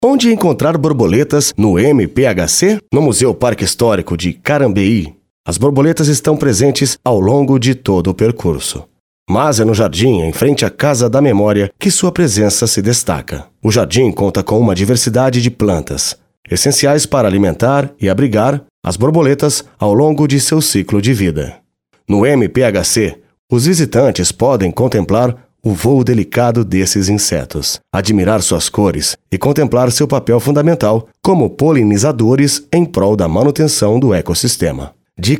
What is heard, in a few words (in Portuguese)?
audio guia voz masculina; painel 6 onde encontrar as borboletas; exposicao casa das borboletas